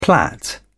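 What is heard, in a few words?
"plait", British English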